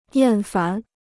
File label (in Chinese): "厌烦", Chinese